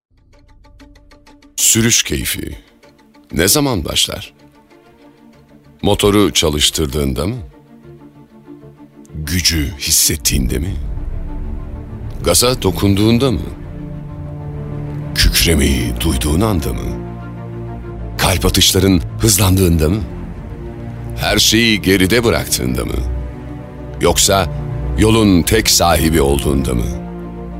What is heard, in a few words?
Turkish male